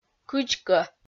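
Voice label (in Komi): "кыдзкӧ", Komi, female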